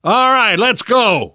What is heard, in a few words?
move on, survivor voice; namvet; Left 4 Dead 2; left4dead2 dlc2